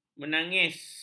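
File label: "menangis", Malay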